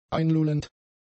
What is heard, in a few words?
"einlullend", German; adjectives